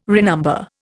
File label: "renumber", Indian